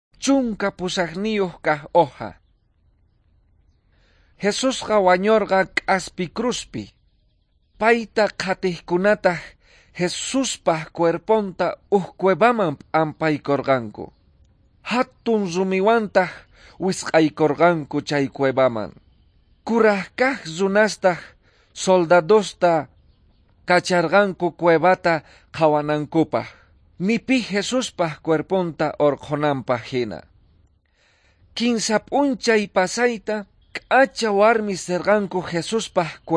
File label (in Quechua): quechua bolivian cochabamba; good news